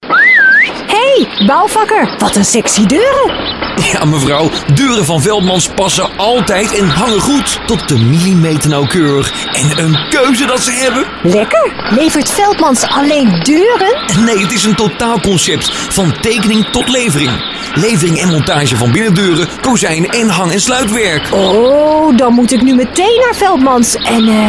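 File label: Dutch, male, character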